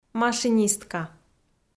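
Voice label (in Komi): "машинистка", Komi-Zyrian, female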